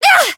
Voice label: Voice damaged; suzette